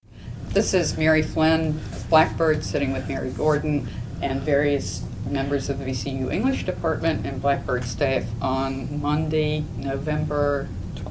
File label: interview